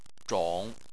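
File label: "zhong" Chinese, third tone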